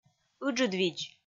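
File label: "ыджыд видз", Komi, female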